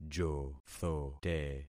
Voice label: "jothote", concat syllables; Affixing experiment

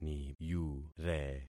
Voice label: "niyure", concat syllables; Affixing experiment

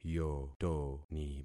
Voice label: "yotoni", concat syllables; Affixing experiment

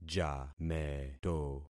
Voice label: "jameto", concat syllables; Affixing experiment

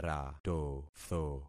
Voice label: "ratotho", concat syllables; Affixing experiment

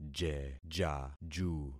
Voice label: "jejaju", concat syllables; Affixing experiment

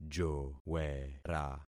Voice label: "jowera", concat syllables; Affixing experiment

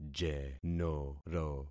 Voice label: "jenoro", concat syllables; Affixing experiment

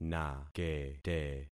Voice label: "nakete", concat syllables; Affixing experiment

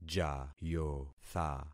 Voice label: "jayotha", concat syllables; Affixing experiment

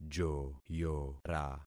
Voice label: "joyora", concat syllables; Affixing experiment

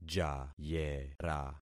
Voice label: "jayera", concat syllables; Affixing experiment

